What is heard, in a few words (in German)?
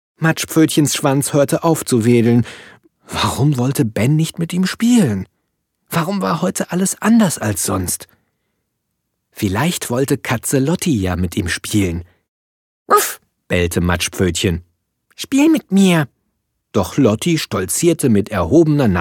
kinderbuch, Sprecher